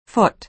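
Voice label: "foot", American English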